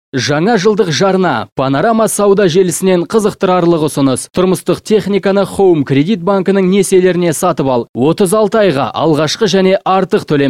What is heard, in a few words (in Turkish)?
Kazakca Erkek; seslendirme